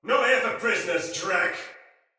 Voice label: See Player Shout, Soldier Male; Sinecure Soldier Male A

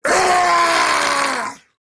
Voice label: Attack Grineer Ghoul Sargeant, en

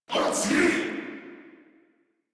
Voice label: Player Spotted, Battle Barks

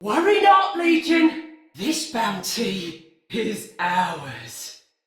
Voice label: See Player Dist, Male; Battle; Barks; Dialog; Sinecure Banneret

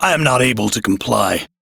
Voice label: Crew Reject, Male, en; Warframe; Railjack Crew; Arbiters Hexis

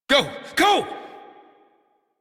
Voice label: Steal Horse Adult, en; Warframe; Duviri; Cinematics

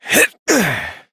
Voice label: Horse Mount Adult; Duviri

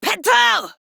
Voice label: Attack, Female; Warframe; Modular Corpus Female; Battle Barks; en

